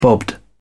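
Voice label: "bobbed", British English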